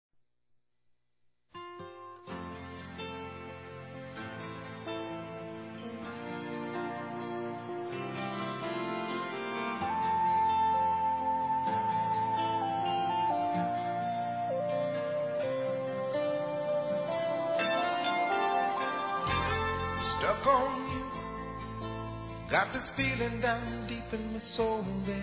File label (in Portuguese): tenho ciúmes de vc, fem; Telemensagens